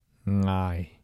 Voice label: "毅", 台城, 男

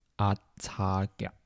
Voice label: "打赤脚", 台城, 男

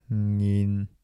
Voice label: "忍", 台城, 男

